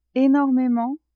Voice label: "enormement", French; adverbs

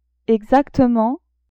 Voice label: "exactement", French; adverbs

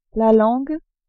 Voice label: "langue", French; corps

